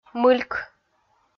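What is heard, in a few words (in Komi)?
"мыльк", Komi, female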